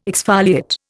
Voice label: "exfoliate", Indian

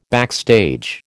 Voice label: "backstage", American

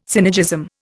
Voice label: "synergism", Indian

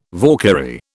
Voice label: "Valkyrie", British

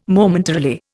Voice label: "Momentarily", Indian

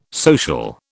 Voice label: "social", British

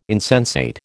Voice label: "insensate", American

